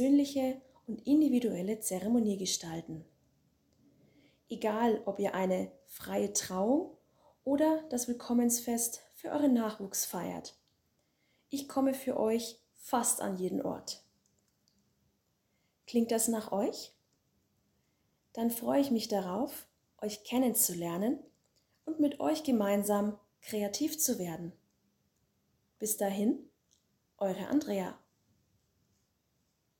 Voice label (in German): Stimmprobe, redner